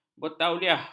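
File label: "bertauliah", Malay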